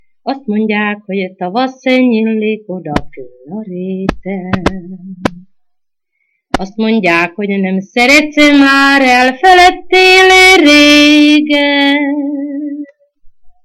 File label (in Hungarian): nyelvjaras gyujtesek